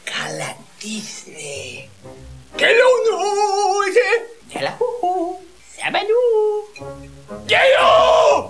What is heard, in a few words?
gibberish